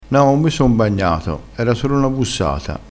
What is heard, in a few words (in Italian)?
"bussata", dialettale